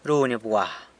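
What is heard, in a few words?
"runy bgwa", San Lucas Quiaviní Zapotec; ties a knot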